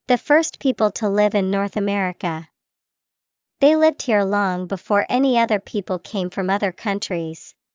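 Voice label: Native American, English vocabulary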